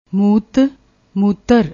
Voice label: "moot", Punjabi